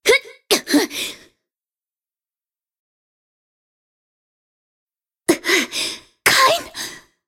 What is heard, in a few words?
cinematic voice; lineage2